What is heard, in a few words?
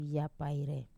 "apai", to run, wamesa